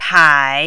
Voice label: "pai3", mandarin